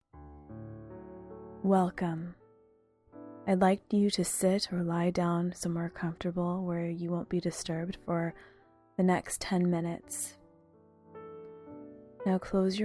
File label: Guided Meditation Breath Waves